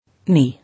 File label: "Knee", English